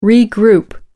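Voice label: "regroup", British English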